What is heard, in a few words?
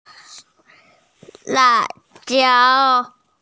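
"la4jiao1" Mandarin Chinese, female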